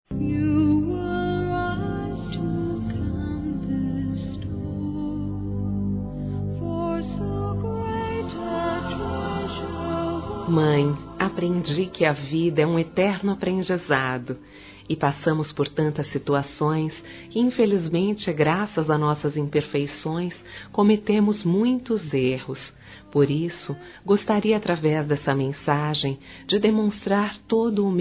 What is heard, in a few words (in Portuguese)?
DESCULPA F; Telemensagens 2017